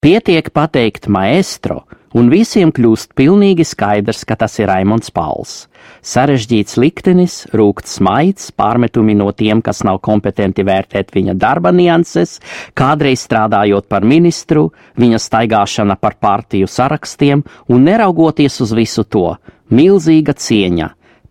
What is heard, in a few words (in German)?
Lettland M1, voiceactors